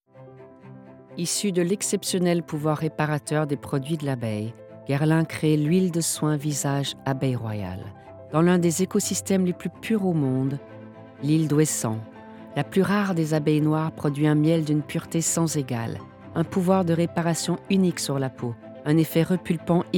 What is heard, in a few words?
francais